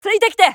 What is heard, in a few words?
japanese Moving; azurlane-hoi4; azurlane-tj